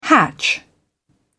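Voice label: "hatch", British English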